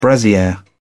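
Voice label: "brassiere", British English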